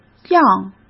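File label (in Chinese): "样", Mandarin Chinese; chinese flashcards; hsk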